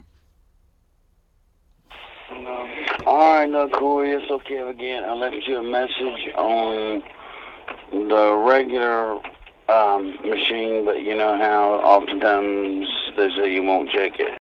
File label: Voicemail